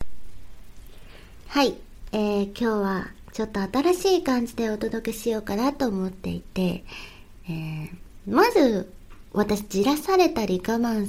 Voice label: 自己紹介, ASMR

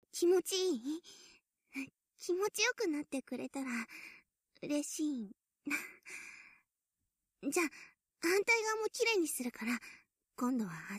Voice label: 気持ちいい? 立体版

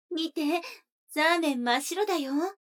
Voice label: 女の子台詞

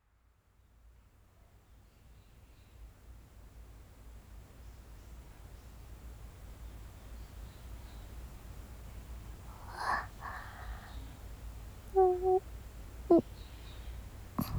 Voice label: ウィスパーでのおしゃべり。うたたね; いよとおしゃべり。うとうとうたたね

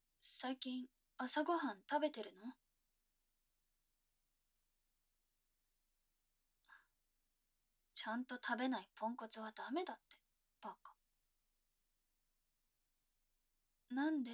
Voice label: ツンデレ彼女, シチュボ

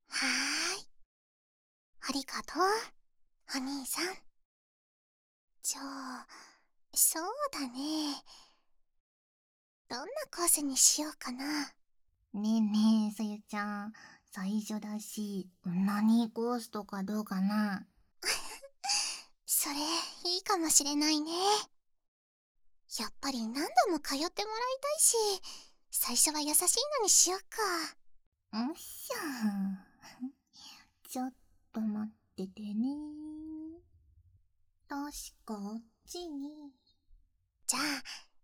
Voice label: 耳舐め足裏オナニーコース, ASMR

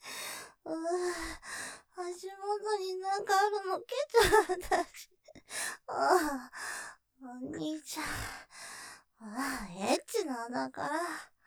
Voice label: お兄ちゃんったらエッチなんだから, 余韻, ASMR; ステレオ; ループで楽しむ！シェフの気まぐれ抜き抜きコース

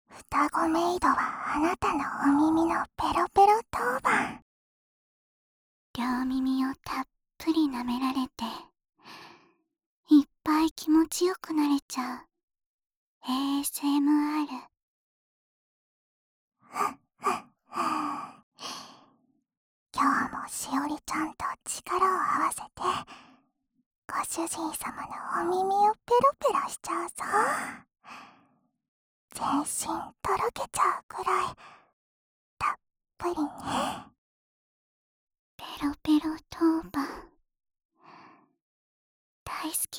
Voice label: タイトルコール

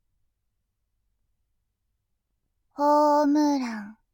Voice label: 「ホームラン」, ASMR

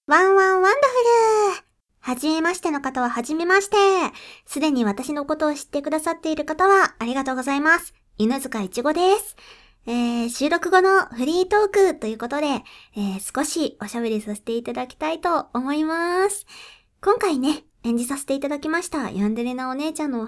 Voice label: ヤンデレお姉ちゃんフリートーク

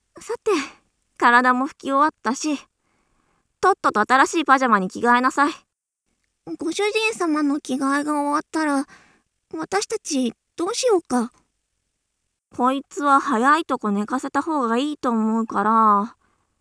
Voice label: 3人でおやすみ（モノラル版）

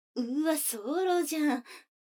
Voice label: 女の子 台詞, ASMR

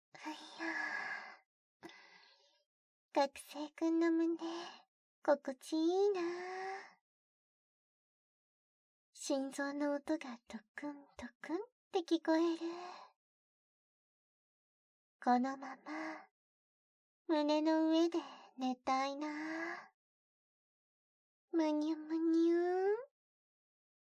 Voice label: ピロートーク, 効果音無し

